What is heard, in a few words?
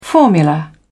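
"formula", English, British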